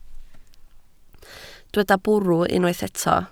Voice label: "bwrw", Welsh

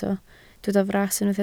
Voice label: "fras", Welsh

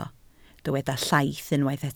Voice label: "llaith", Welsh

